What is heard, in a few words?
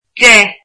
"ten", Irish